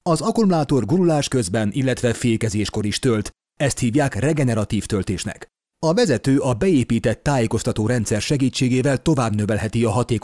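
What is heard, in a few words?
Hungarian, male, commercial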